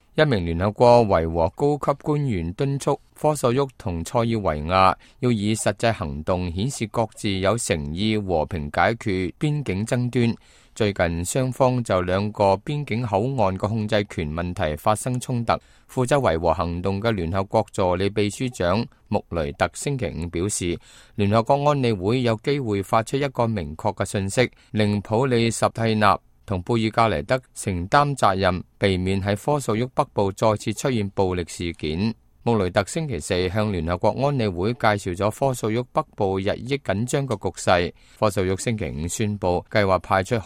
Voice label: cantonese, news